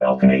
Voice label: "balcony", robot